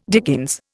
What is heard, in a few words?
"dickens", Indian